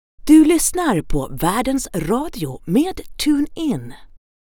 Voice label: swedish others

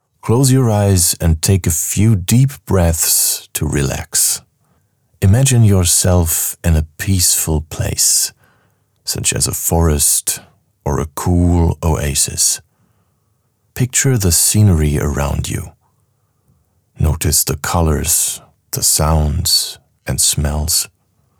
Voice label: english podcasts; actor de doblaje profesional

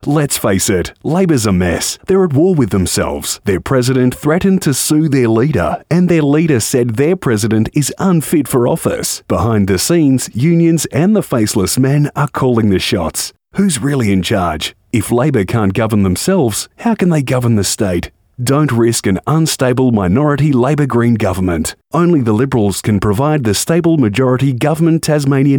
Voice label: english australian political ads

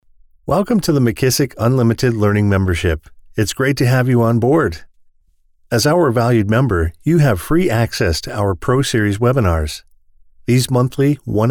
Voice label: english canadian narration

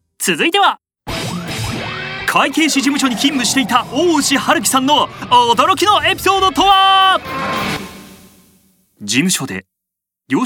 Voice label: japanese tv shows

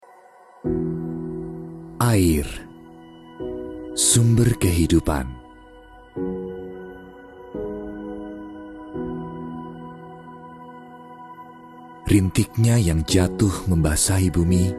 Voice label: indonesian commercial

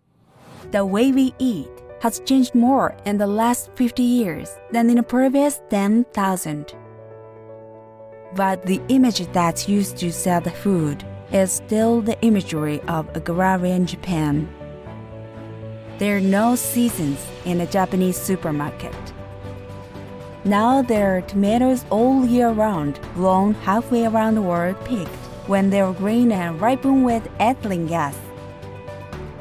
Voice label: english corporate videos

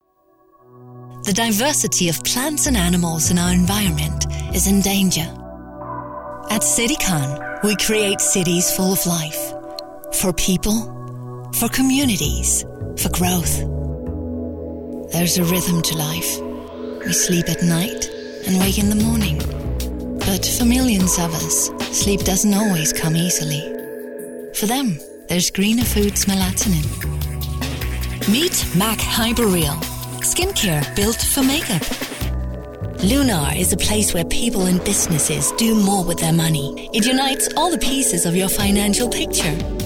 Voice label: english corporate videos

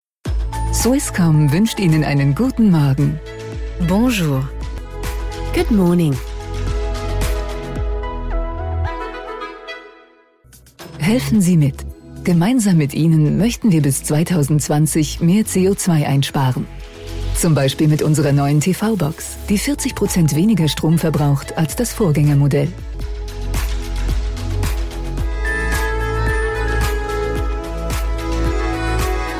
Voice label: german ivr

